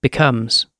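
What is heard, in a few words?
"becomes", British English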